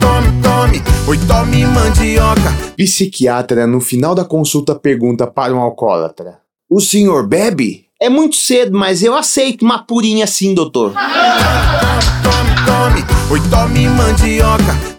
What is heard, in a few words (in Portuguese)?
spot piada; riso do radio; rei da mandioca